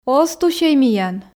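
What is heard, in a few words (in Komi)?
оз тусьӧй миян, Komi, female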